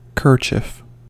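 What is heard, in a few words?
"kerchief", American English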